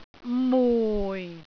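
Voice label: "mui4", Cantonese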